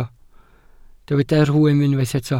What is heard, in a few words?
"rhwym", Welsh